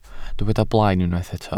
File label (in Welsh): "blaen", Welsh